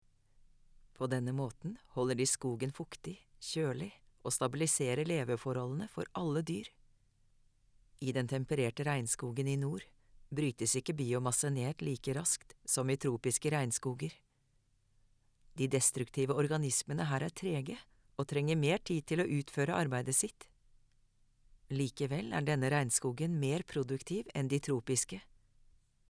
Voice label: film VO; English (British)